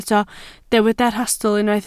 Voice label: "rhastl", Welsh